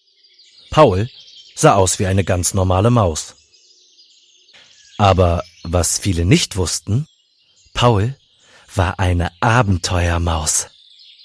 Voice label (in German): Hoerbuch; Paul und seine Freunde